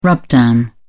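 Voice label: "rubdown", British English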